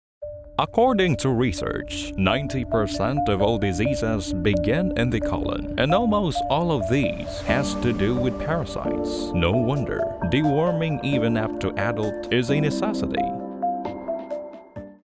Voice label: english documentaries